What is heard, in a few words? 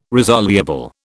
"resoluble", British